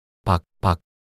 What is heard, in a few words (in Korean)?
"박박" 낱소리 남자